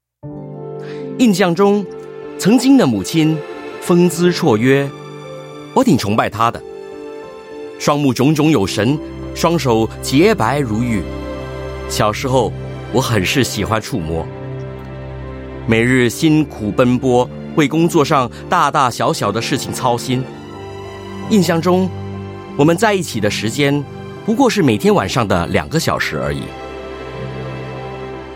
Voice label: Mandarin